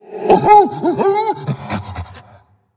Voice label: Undead Beast Emote Well Played; Arfus